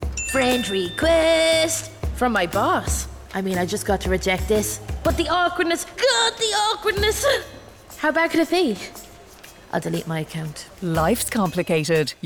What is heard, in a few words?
Radio; TescoMobile